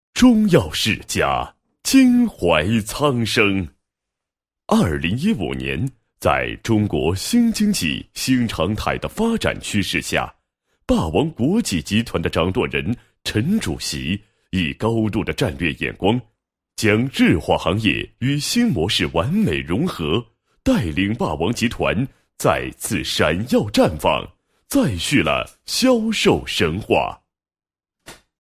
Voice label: 男 人物 简介, 配音; 霸王集团市场总监刘某简介

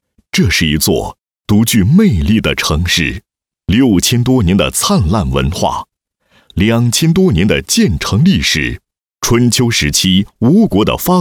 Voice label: 男, 政府汇报; 丹阳市创建“四好农村路”示范市

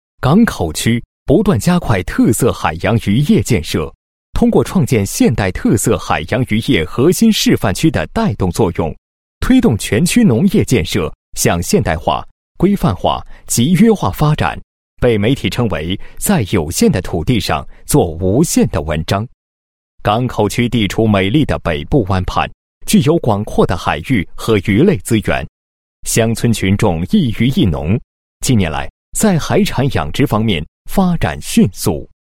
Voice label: 男 专题 现代特色海洋渔业示范区建设纪实; 港口区现代特色海洋渔业示范区建设纪实

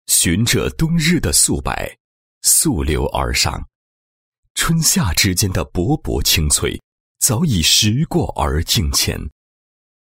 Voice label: 男 散文; 循着冬日的素白溯流而上

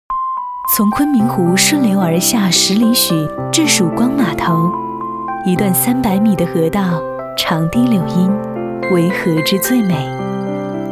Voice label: 女, 地产广告, 温文尔雅; 观澜国际花园

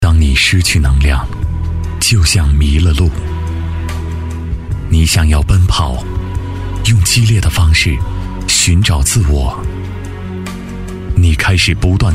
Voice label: 男 旁白 优雅低沉 无极限, 深沉大气; 国语男声配音

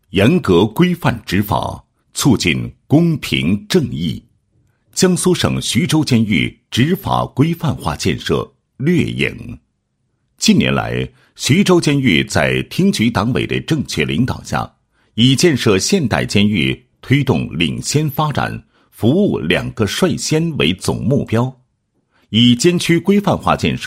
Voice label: 男 监狱工作纪实; 某监狱执法规范化建设掠影

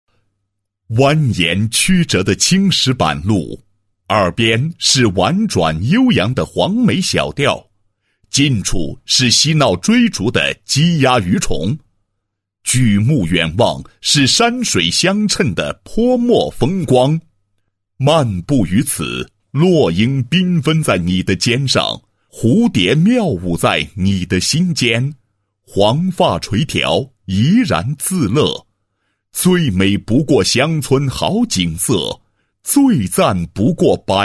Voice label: 男 纪实; 乡村建设可持续发展

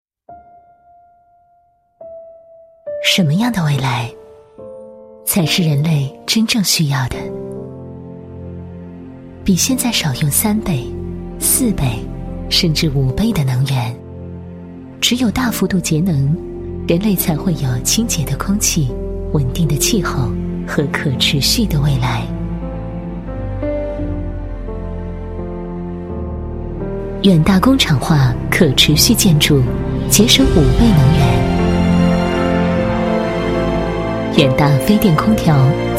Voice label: 女4号 品牌广告 形象片, 国语女声配音; 优雅配音; 远大集团; 未来篇

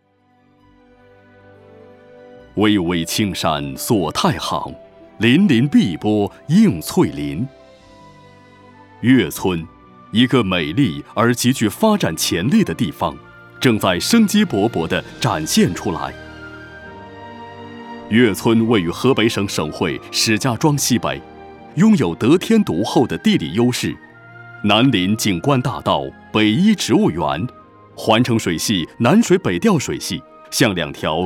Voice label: 男 汇报片; 岳村

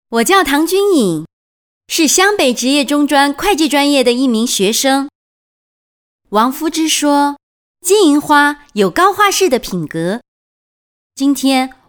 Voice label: 独白; 心如忍冬逐梦向阳

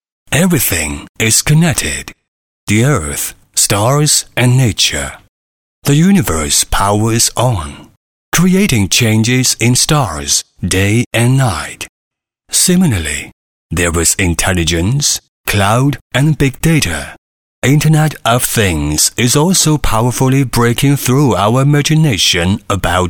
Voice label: 英 男, 专题, 科技现代感; 华为

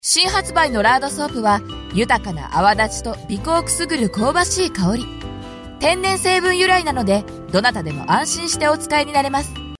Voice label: 轻快语调

